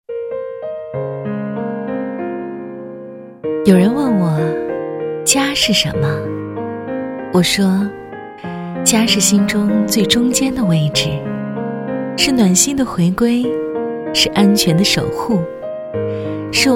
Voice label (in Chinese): 女, 微电影; 谷的家